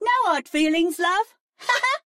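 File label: English, laugh; 回声（守望先锋2）; 终极技能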